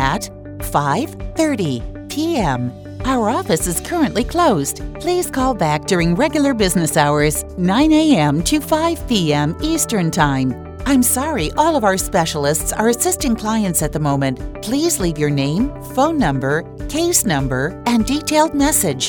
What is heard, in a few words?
IVR Voice Prompts, English